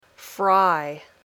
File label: "fry", English